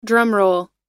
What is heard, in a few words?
"drumroll", pronounce